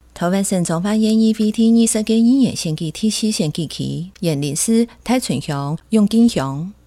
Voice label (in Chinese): 前言 客語; 彰化縣; 縣議員; 第四選舉區; 有聲公報